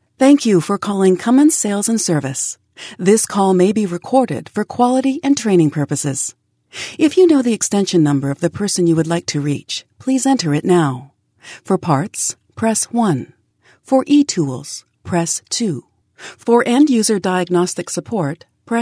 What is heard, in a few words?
Voice Prompt